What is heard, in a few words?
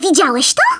BRILLIANT, Speech, Polish; Worms Armageddon